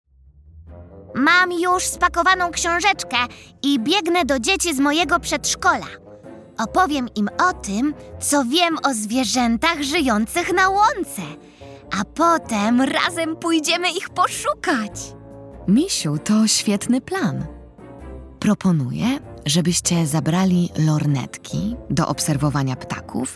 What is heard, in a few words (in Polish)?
narracja; czasopismo mis; wiosna; pozegnanie